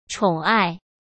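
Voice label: "宠爱", Mandarin Chinese; chinese-flashcards; hsk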